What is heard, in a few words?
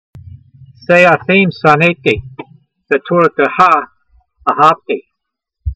voice, hebrew language; Psalm 119:113; say'a'feem sa'nay'tee, ve'tora'te'ha a'havtee